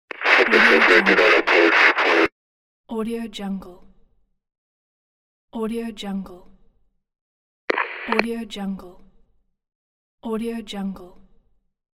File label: police radio transmission